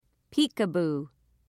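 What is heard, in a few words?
"peek a boo", English, British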